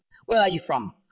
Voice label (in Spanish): Voice Acting es